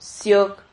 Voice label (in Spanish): "siog", silabas